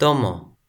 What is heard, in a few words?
"tomo", Toki Pona